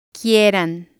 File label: "quieran", spanish